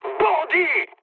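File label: enemy detected, mood high, French; War Thunder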